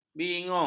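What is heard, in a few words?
"bingung", Malay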